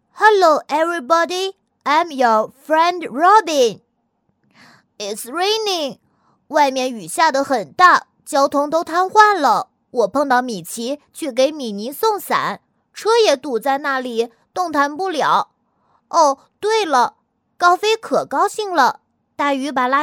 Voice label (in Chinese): 女 英文男童; 素人自然; 美国迪士尼授权Disney